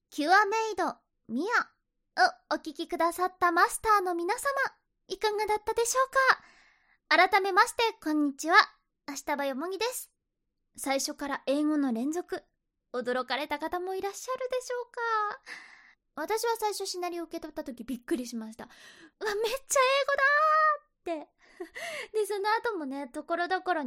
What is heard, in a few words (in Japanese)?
フリートーク